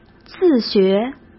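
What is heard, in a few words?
"自学", Mandarin Chinese; hsk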